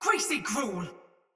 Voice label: Sight Lost Shout Soldier Mace Agent Female; Battle; Sinecure Melee